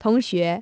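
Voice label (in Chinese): "同学" Chinese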